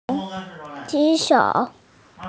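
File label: "raise hand", female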